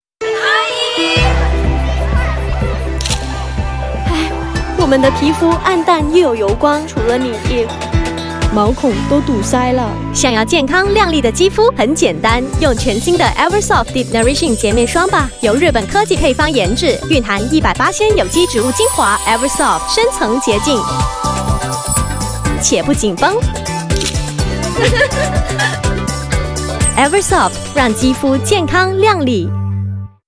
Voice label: MAND; Eversoft

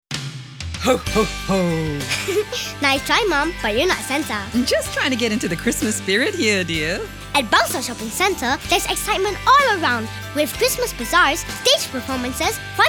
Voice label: ENG; CHRISTMAS 2017

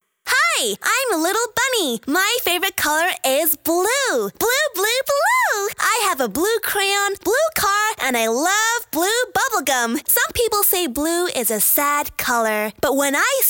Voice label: Animation Child Female Short

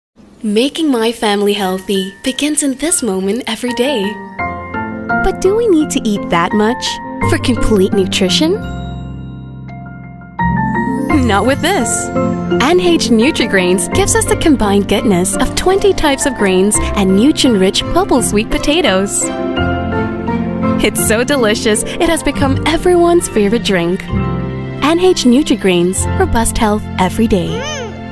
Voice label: Mother English; Nutri Grains

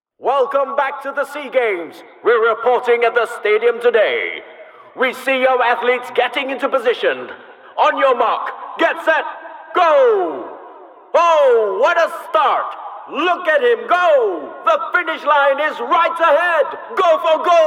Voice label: ENG Commentator

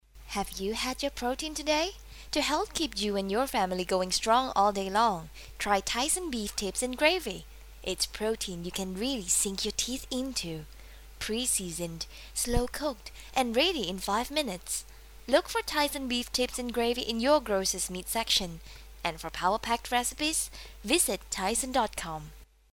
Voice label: English

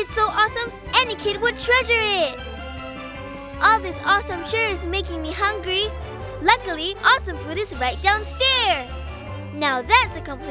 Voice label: Hotel TVC English VO; 30s; Legoland

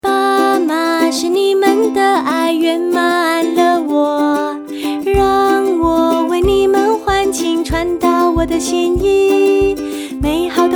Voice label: MAND; Canon selphy

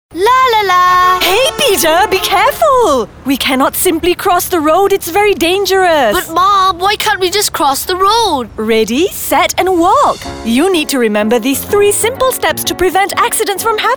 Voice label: WALKATHON EVENT ENG; MAIN PLACE MALL

